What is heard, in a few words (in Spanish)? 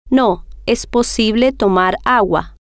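no Es Posible Tomar Agua, spanish, female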